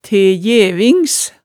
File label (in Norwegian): "te gjevings", hemsedalsmaalet tale